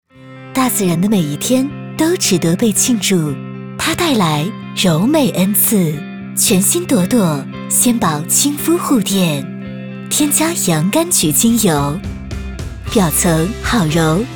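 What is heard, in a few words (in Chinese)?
甜甜广告清新甜美; 朵朵护垫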